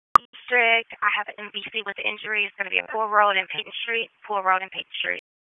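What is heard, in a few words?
Police Dispatch, p25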